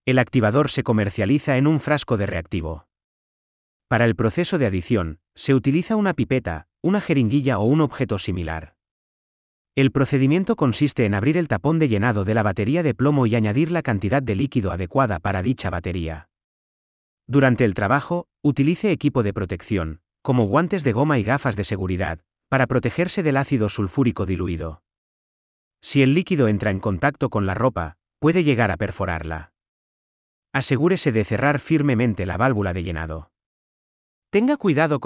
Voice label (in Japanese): ナレーター スペイン語